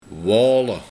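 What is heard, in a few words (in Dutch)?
"Waole", dialect; Walen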